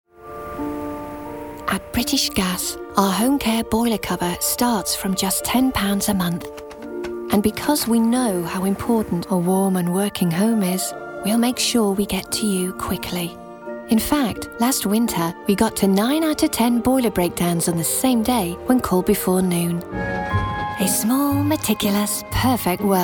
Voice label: commercial

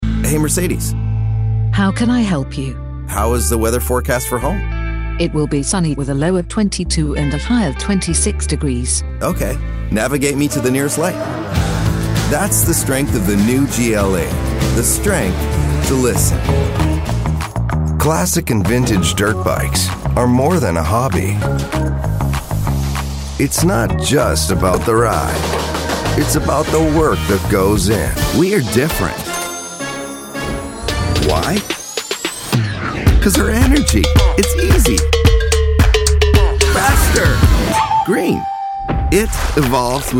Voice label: Commercial

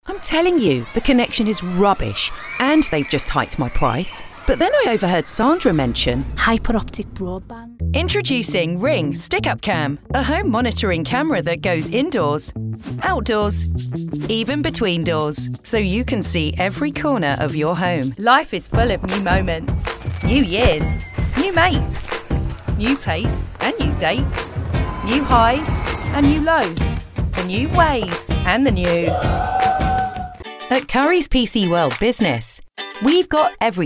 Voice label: Commercial

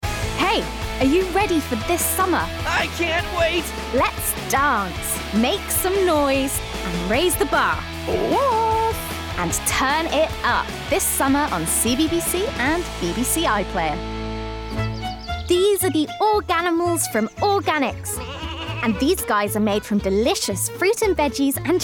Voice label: Commercials

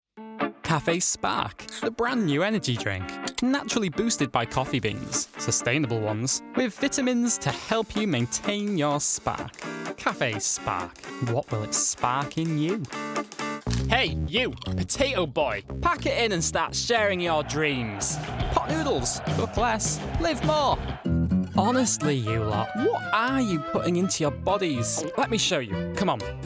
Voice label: Commercial

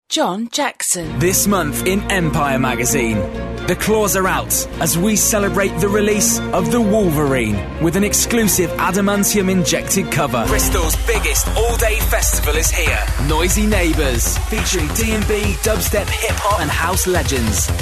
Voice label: commercial reel

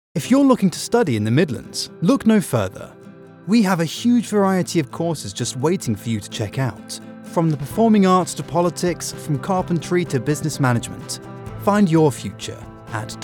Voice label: Commercial

